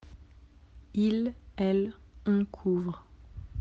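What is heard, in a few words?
"couvrir", French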